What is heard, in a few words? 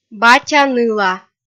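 "батя-ныла", Komi, female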